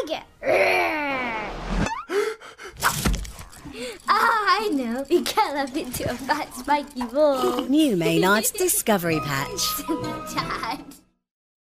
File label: Advert, MUMMY HEDGEHOG; MAYNARDS